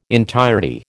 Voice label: "entirety", American